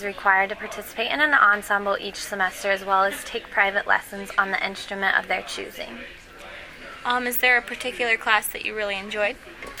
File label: interview with music education major